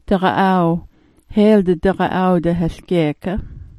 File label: "daga'aw", smalgyax